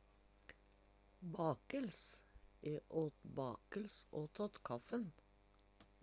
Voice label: bakels, Numedalsmål; E åt bakels åtåt kaffen.; Hushald, mat, drikke

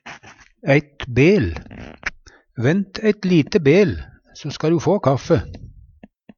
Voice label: bel, Numedalsmål; Vent eit lite bel so ska'ru få kaffe.

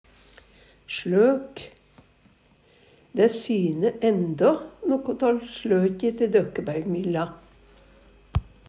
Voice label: "sLøk", Numedalsmål

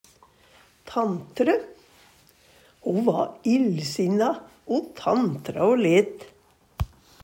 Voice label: tantre, Numedalsmål; Ho va illsinna o tantra o let.

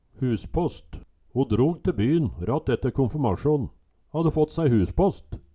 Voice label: "huspåst", Numedalsmål

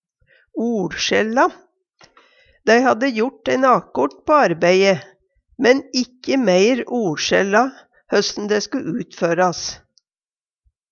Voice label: oLskjelle, Numedalsmål; Dei hadde jort ein akort på arbei'e, men ikkje meir oLskjella høssen dæ

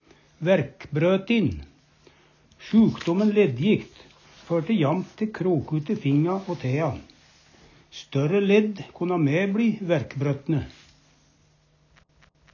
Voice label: "vaerkbrotin", Numedalsmål; Uvdal

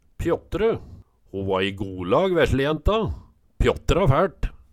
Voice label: pjåttre, Numedalsmål; Ho va i golag veslejenta, pjåttra fæLt

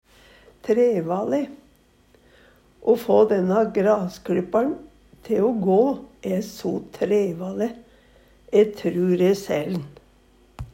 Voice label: trevale, Numedalsmål; Adverb; O få dænna graskLyppar'n te o gå æ so trevale. E trur e sæl'n.

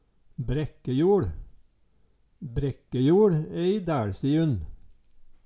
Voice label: "brekkejoL", Numedalsmål; Brekkejord er god moldjord (i motsetning til sandjord)

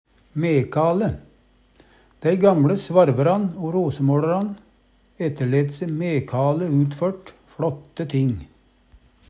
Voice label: mekale, Numedalsmål

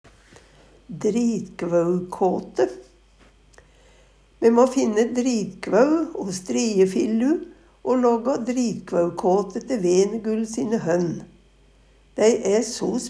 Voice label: "dritkvaukate", Numedalsmål

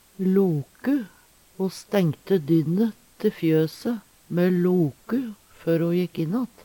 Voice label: "loku", Numedalsmål; slå, stengsel for uth

